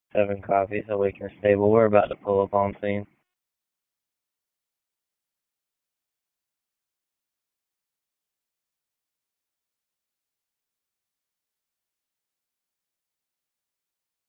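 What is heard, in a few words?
Public Safety Tactical, p25; wake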